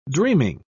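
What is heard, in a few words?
"dreaming", British English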